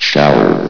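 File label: "shower", male synthesized voice; vox; source engine; hl1; video games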